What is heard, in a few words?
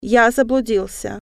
male, russian; i am lost